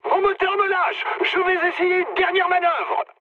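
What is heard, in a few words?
damaged engine, mood high, French; War Thunder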